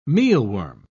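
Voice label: "mealworm", British English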